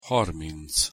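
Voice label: "harminc", Hungarian pronunciation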